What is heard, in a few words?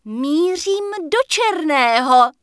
Night Elf Female Attack My Target; wowpreklad; czwow; NightElfVocalFemale